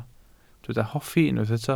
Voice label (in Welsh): "hoffi", Welsh